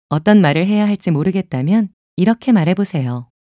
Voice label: preprocessing error, Bixby (Korean); Samsung Galaxy S8